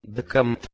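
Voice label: "dkmt", Proto-Indo-European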